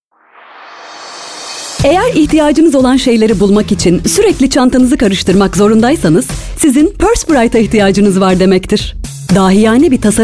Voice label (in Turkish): reklam; pursebright